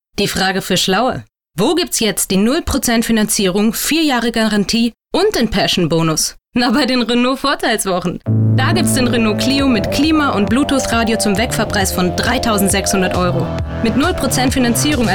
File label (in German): Frau